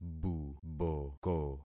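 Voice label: "buboko", concatenated syllables; Affixing experiment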